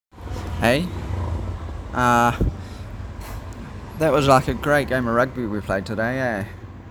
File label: "Aye", New Zealand; common New Zealand slang words and phrases